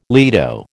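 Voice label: "lido", American English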